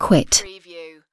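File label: "quit", British female; lynne publishing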